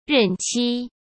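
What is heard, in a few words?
"任期", Mandarin Chinese; hsk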